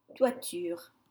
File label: "toiture", French